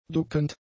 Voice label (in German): "duckend", German; adjectives